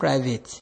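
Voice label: "private", Nigerian Pidgin, male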